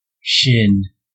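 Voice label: "shin", US English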